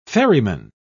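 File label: "ferryman", British English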